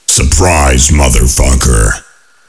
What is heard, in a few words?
SURPRISE; cstrike; QuakeSounds